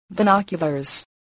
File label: "binoculars", spelling